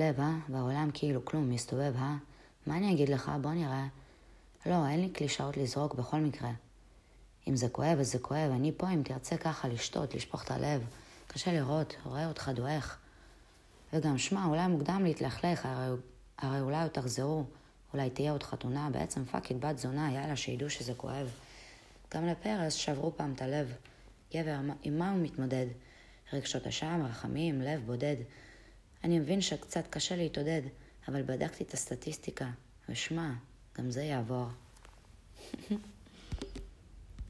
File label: Hebrew